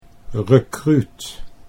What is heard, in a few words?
"rekruut", Dutch